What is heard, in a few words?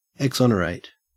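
"exonerate", Australian English; pronunciations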